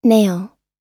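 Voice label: "nail", US English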